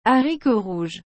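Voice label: "haricots rouges", French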